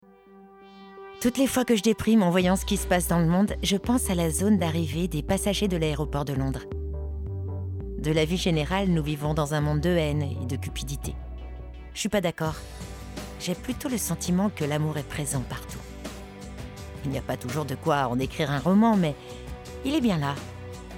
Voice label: Doublage Film Voix off droite nature; Love Actually

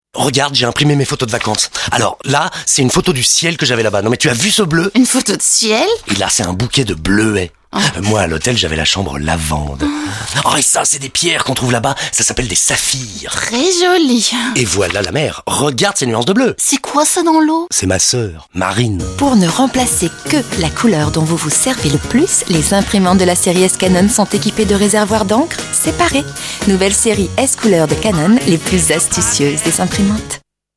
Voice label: souriante humour

